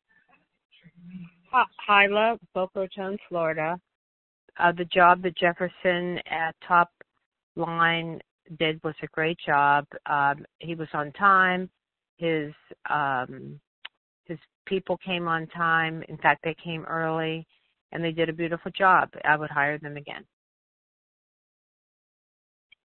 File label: testimonial